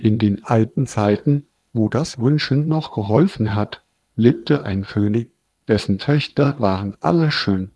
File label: waveglow; Thorsten-Voice